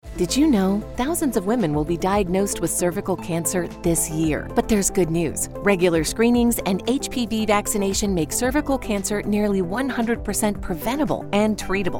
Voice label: Radio Ad